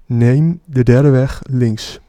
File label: 3rd Left, Dutch, male